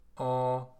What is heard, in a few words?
oː nasal